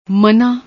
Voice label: "manaah", Punjabi; ਮਨ੍ਹਾ